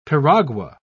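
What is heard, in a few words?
"piragua", British English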